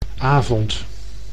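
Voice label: "avond", Dutch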